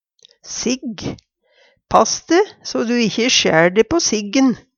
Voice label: sigg, Numedalsmål; Pass de, så du ikkje skjær de på siggen.

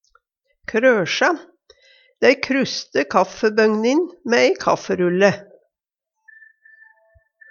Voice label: krosja, Numedalsmål; Verb; Hushald, mat, drikke

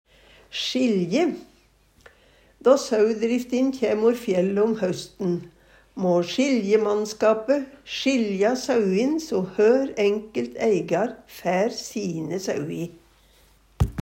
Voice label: sjilje, Numedalsmål

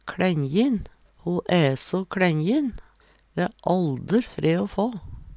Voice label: "kLenjin", Numedalsmål; Ho æ so kLenjin. Dæ æ allder fre o få.; Adjektiv; Veggli

